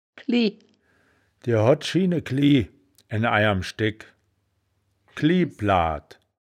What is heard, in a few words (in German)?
"klieh", wittlicher woerterbuch; das wittlicher woerterbuch; woerterbuch vertonung